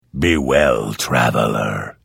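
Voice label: Hello, Vo; Morrowind GOTY GOG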